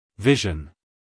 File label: "vision" us male; everyone-can-use-english